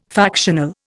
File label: "factional", Indian